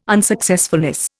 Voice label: "unsuccessfulness", Indian